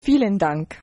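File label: "thanks", German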